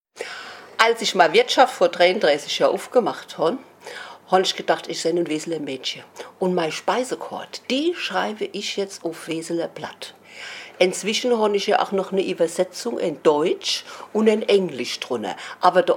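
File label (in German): speisekart uff Platt, Hunsrück; Oberwesel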